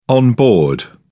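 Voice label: "on board", English, British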